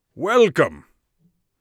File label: welcome, Mage Voice; Mage Voice Pack